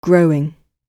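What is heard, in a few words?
"growing", British English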